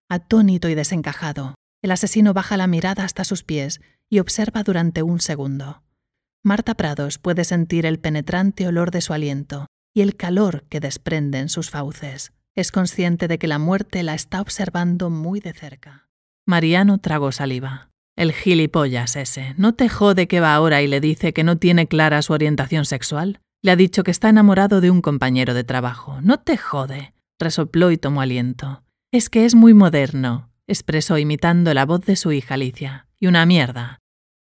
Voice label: Thriller and Suspense Castilian Spanish